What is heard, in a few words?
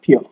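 "pure", Nigerian Pidgin, male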